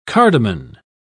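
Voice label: "cardamon", British English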